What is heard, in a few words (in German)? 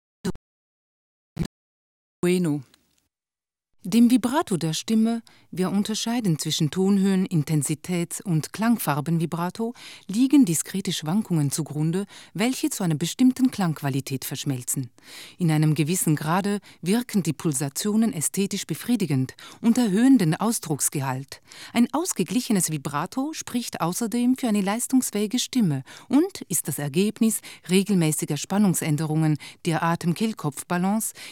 Kommentar, deutsch